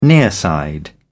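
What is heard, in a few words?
"nearside", British English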